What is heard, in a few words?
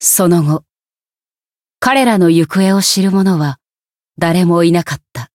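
Voice game over, Japanese; milla; tales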